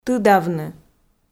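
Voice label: "тыдавны", Komi, female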